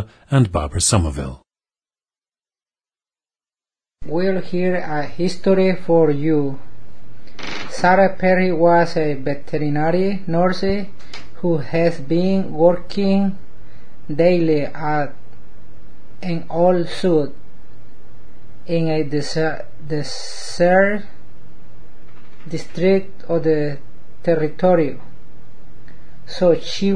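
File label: el salvador, International Dialects of English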